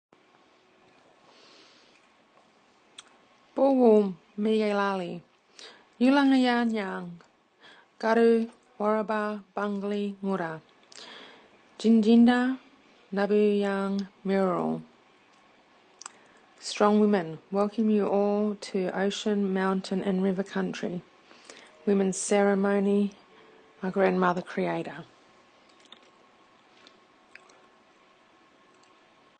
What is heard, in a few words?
Audio Message